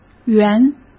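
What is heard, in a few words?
"园", Mandarin Chinese; chinese-flashcards; hsk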